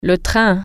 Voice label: "train", French

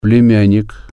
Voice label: "nephew", Russian

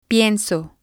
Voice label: "pienso", spanish

